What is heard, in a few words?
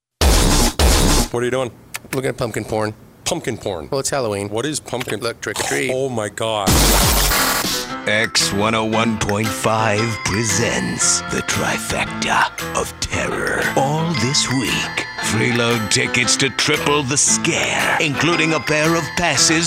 Radio Promo